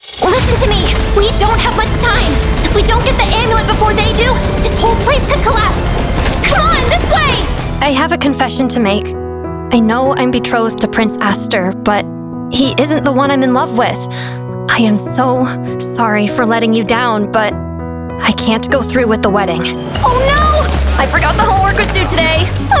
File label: Character